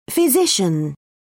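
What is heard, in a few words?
"physician", British English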